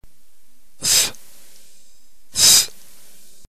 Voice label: theta, ntgreek alphabet phoneme

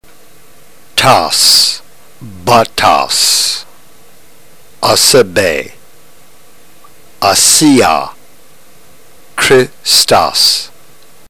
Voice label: drill, ntgreek

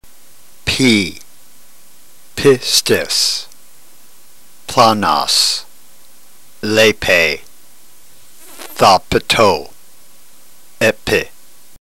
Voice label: drill, ntgreek

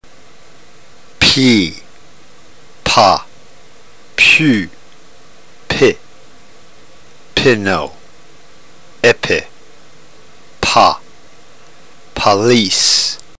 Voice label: drill, ntgreek

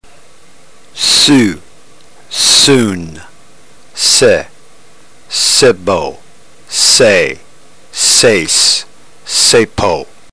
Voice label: drill, ntgreek

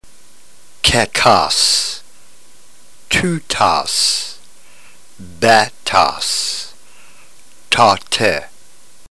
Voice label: drill, ntgreek

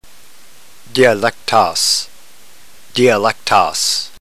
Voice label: "dialektos", Greek

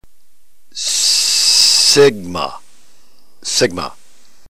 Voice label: "sigma", ntgreek alphabet

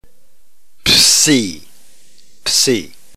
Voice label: "psi", ntgreek alphabet

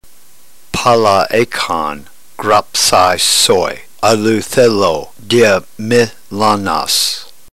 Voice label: drill, ntgreek

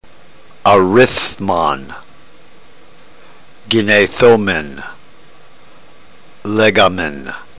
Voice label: drill, ntgreek

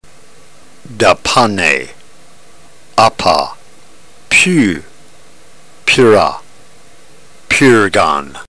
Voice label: drill, ntgreek

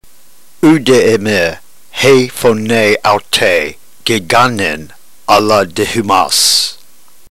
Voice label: drill, ntgreek

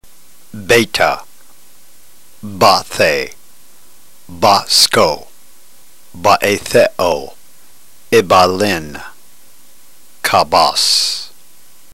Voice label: drill, ntgreek

